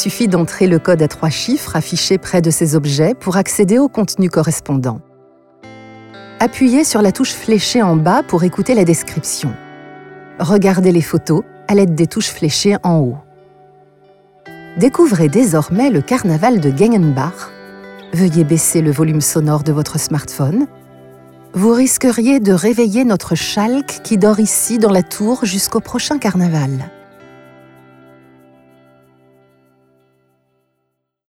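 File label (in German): Audio Guide, französische Sprecherin; french voice-over talent female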